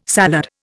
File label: "salad", Indian